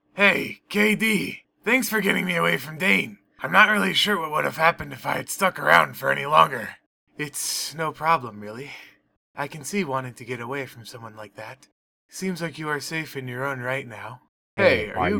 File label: Banner Character Voices